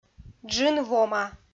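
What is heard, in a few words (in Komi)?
"джын вома", Komi, female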